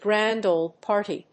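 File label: "Grand Old Party", English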